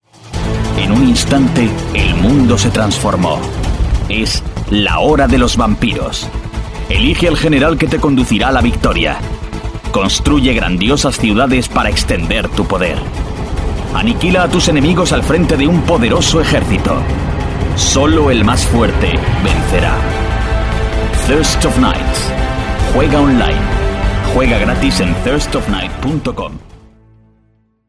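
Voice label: Spanish, male, game trailer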